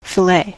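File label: "fillet", meat, American English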